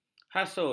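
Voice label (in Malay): "hasut", Malay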